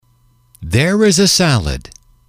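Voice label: "there is a salad", English, male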